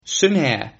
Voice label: cheers, Sylt Frisian